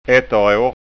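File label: "etterar", Scanian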